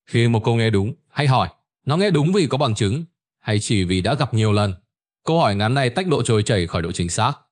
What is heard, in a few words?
narration; Vi Sao Ban De Tin Mot Dieu Khi Nghe No Lap Lai Nhieu Lan; StickerMan